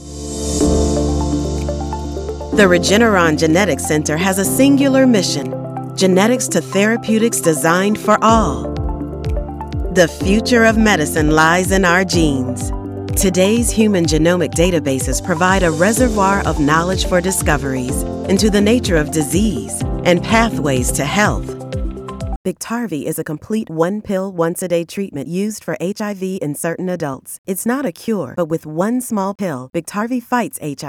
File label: Medical Narration